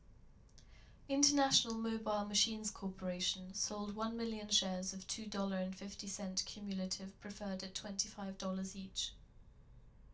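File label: Sim Data room far female, reverberant environments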